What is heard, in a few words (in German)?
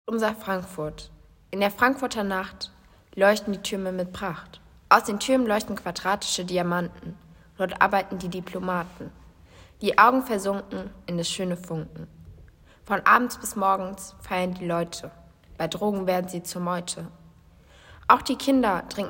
Audio Gedicht